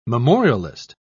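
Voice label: "memorialist", British English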